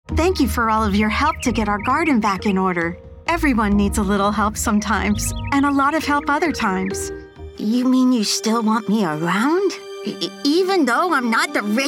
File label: Animation Demo